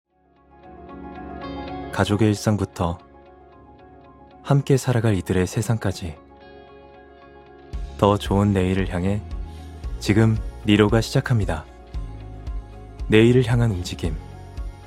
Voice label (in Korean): 광고 따듯한 편안한톤